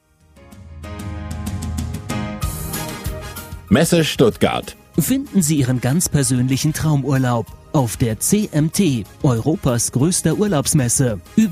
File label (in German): Werbespot; Landesmesse Stuttgart